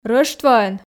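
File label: "рӧштвоӧн", Komi, female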